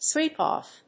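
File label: "sweep off", English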